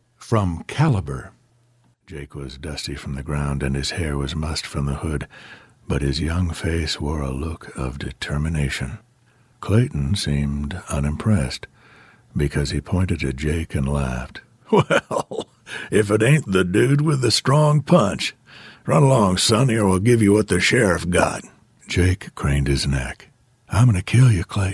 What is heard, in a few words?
Audiobook fiction